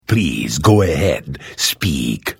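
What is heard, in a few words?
Hello, Vo; Morrowind GOTY GOG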